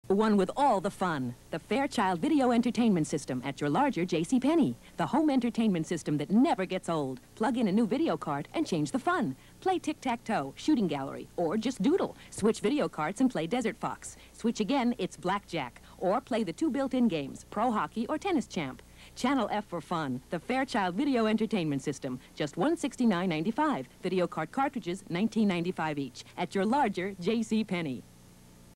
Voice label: commercial; Fairchild Channel F; JCPenney